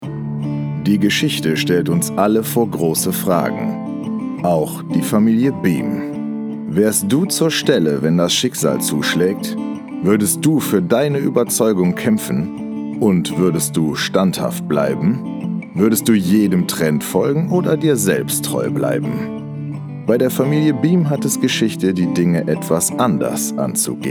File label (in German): Werbung